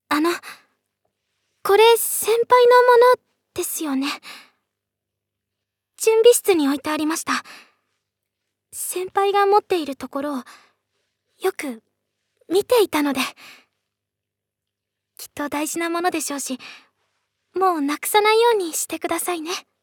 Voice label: girl; 女子中学生